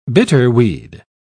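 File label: "bitterweed", British English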